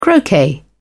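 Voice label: "croquet", British English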